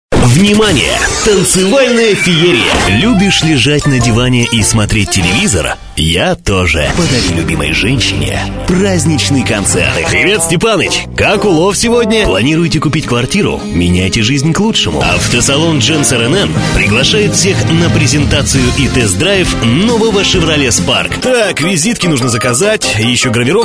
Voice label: dictory men